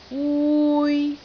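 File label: "wui3", Cantonese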